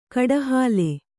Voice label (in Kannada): "ಕಡಹಾಲೆ", kannada